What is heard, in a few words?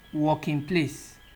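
"working place", Nigerian Pidgin, male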